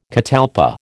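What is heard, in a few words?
"catalpa", American English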